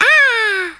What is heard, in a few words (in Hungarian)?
"bungee", Hungarian; Worms Armageddon